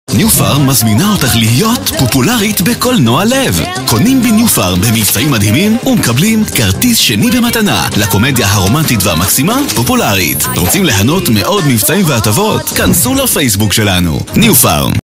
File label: hebrew movie trailers